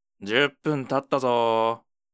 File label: alarm, ASMR